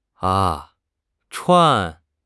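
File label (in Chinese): "串", Chinese; HSK6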